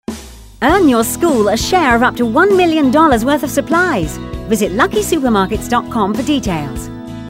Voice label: upbeat

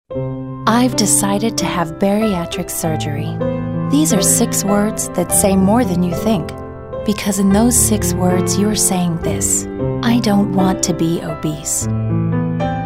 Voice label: caring

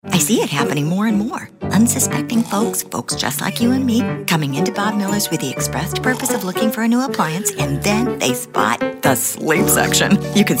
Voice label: animated

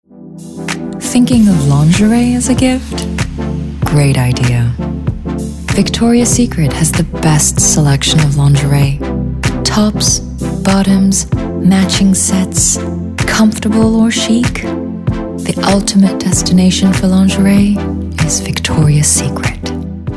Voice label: English; Victoria's Secret

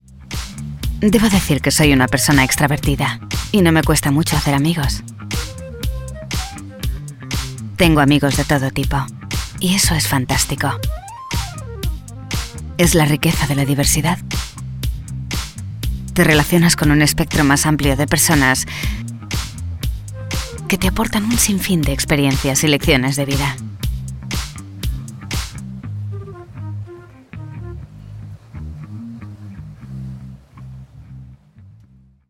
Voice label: Conversational Friends Charismatic Spanish

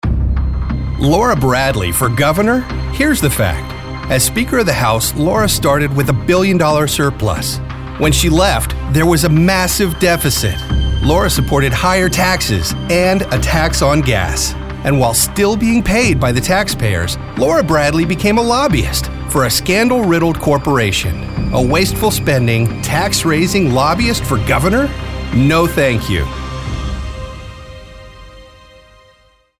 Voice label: Political Negative

